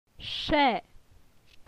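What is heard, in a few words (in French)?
"che", French; phonetique; la syllabe e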